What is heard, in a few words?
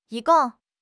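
"yi1 gong4", Chinese; HSK; HSK3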